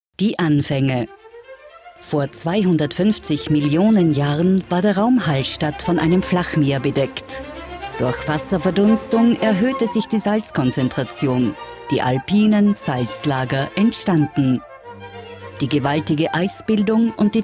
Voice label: audioguide; Hallstadt

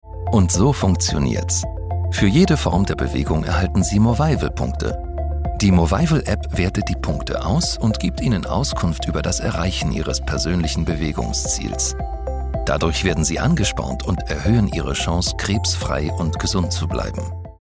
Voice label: DE Erklärvideo; Movival

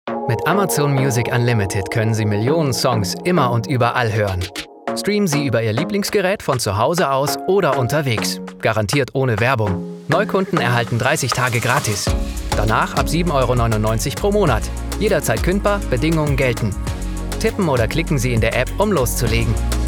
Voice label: Werbung; Amazon Music

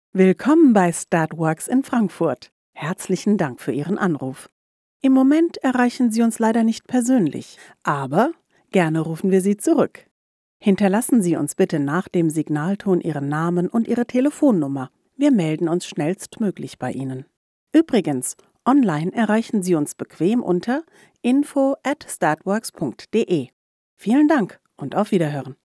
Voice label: Telefonansage; statworx

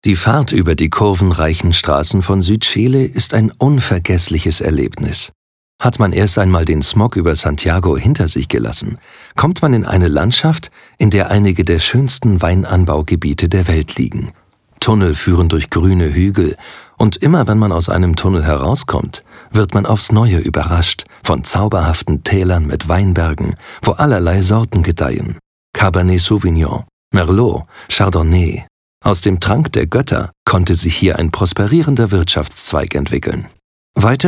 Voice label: DE Suedchile

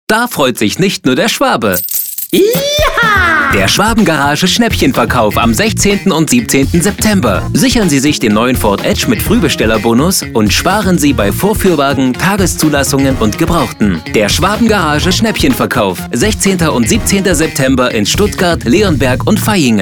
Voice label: Radio Sonderverkauf; Schwabengarage Stuttgart

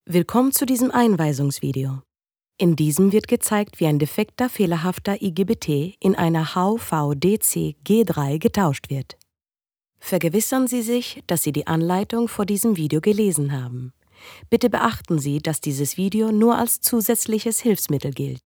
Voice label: Einweisungsvideo

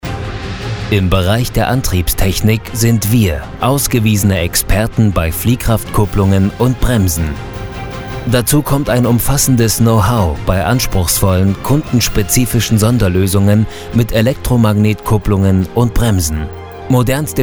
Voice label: Imagefilm big

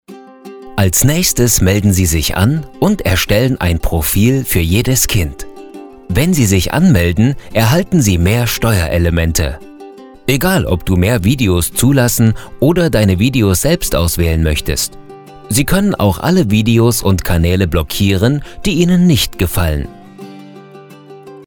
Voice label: E-Learning sympathisch

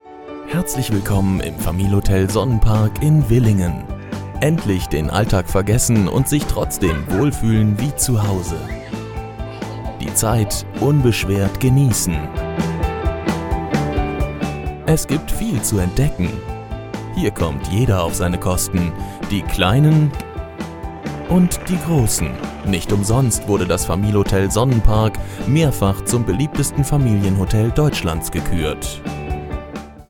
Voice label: Imagefilm; Sonnenpark

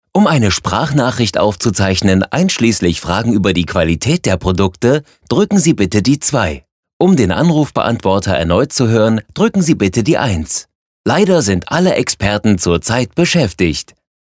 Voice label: Telefon

